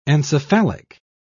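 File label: "encephalic", English, British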